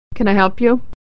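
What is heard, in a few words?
"can", American English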